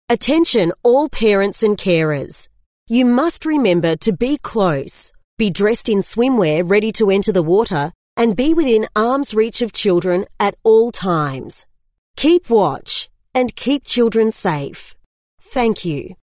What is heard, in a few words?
Female Adult Remember to be close, public pool; keep watch; public pool partners queensland